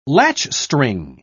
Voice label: "latchstring", pronounce

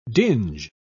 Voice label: "dinge", pronounce

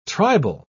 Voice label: "tribal", pronounce

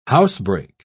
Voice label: "housebreak", pronounce

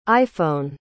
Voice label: "iphone", pronounce

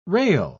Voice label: "rail", pronounce

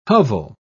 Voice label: "hovel", pronounce

